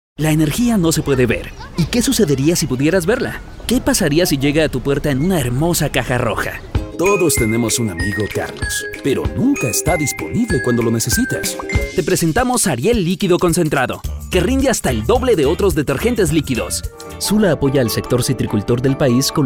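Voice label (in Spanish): Locución Comercial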